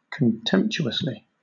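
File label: "contemptuously", British English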